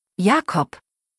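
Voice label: "Jakob", German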